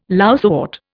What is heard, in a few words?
"lousewort", Indian